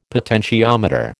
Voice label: "potentiometer", American